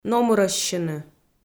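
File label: "номырӧссьыны", Komi, female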